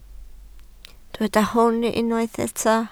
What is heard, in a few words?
"hwn", Welsh